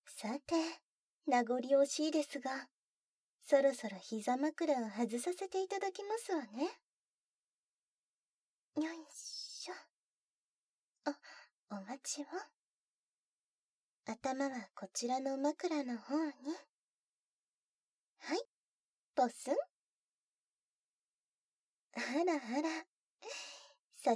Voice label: こしょこしょささやき添い寝♪

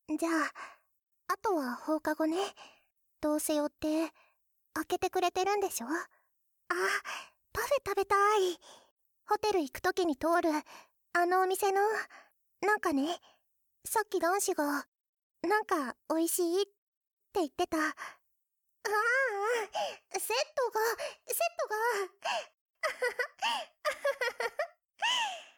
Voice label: ピロートーク; おまけ(全年齢)

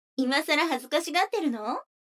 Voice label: 女の子台詞, ASMR

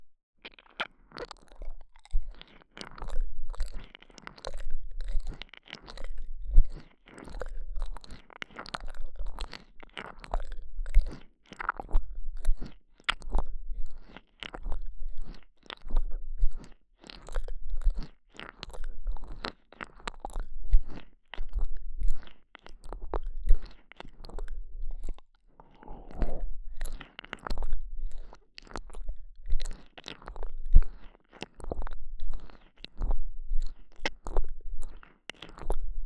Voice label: ズボッ…ズボッ…, 左耳

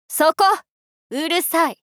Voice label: そこっ！うるさい！大人×低音域

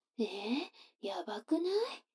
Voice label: 女の子台詞, ASMR

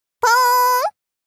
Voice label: ぽーん！少女×中音域

